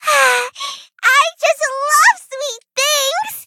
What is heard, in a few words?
levelup en